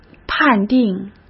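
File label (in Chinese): "判定", Mandarin Chinese; hsk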